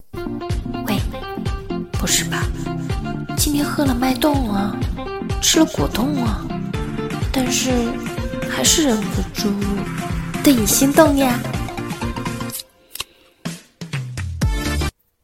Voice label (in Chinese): 甜蜜音